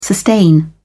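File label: "sustain", British English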